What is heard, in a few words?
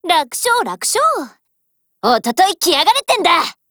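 win, Japanese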